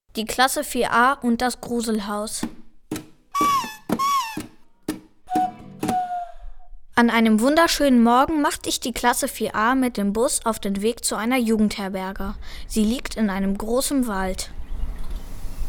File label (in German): Hörspiel